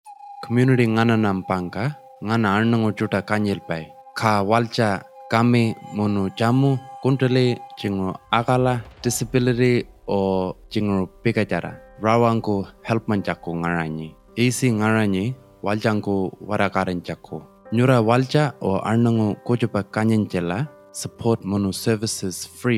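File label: Pitjantjatjara, radio; Carer Gateway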